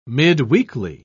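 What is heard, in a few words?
"midweekly", British English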